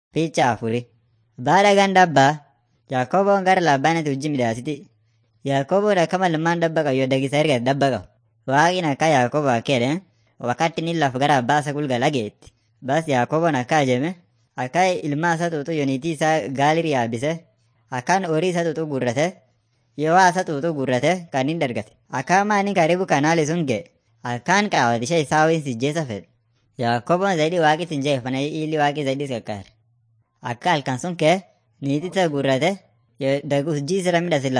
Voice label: afan munyoyaya; mighty men of god